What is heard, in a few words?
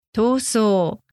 "tousou", Japanese; strife conflict